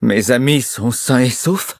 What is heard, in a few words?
victory, fr